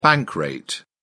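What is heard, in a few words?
"bank rate", British English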